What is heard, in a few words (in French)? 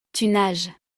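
"tu nages", French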